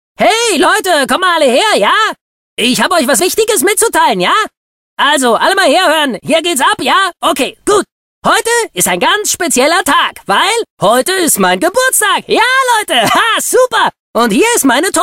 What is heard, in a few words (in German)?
Trickstimmen kurz